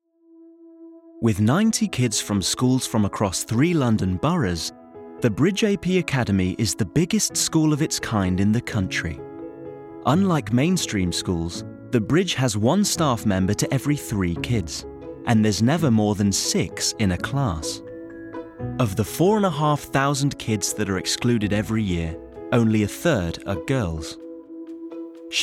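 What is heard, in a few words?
documentary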